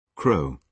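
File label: "crow", British English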